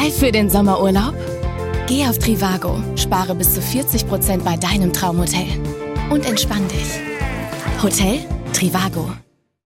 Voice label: TVC, Commercial (Werbung), German; trivago Sommerangebot